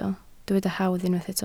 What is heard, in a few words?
"hawdd", Welsh